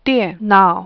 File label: computer, chinese